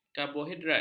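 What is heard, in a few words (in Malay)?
"karbohidrat", Malay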